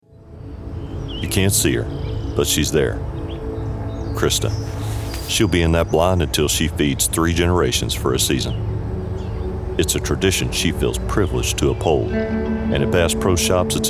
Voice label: Commercial